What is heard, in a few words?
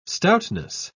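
"stoutness", British English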